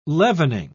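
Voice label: "leavening", British English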